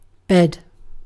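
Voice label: "bed", British English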